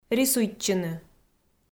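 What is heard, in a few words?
"рисуйтчыны", Komi, female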